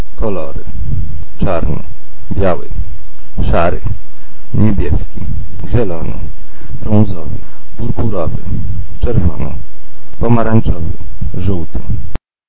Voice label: polish colors